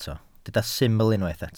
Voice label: "syml" Welsh

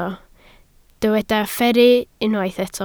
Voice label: "fferru", Welsh

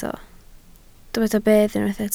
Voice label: "bedd", Welsh

